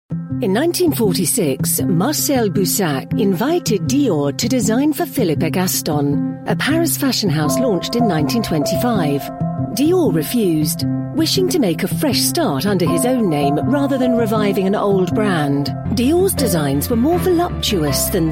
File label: compelling informative storytelling